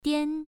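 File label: "dian" Mandarin, first tone, pronunciation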